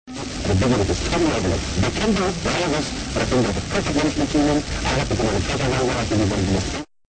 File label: Announcer; Bob the Robber 2